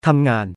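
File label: "ทำงาน", Thai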